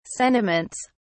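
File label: "sentiments", British English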